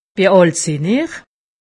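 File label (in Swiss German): "alt", Alsatian; medecine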